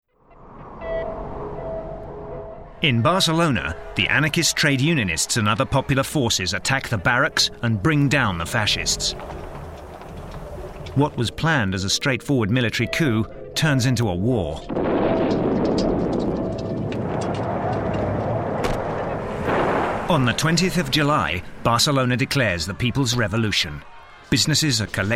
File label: Documentary